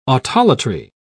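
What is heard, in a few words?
"autolatry", English, British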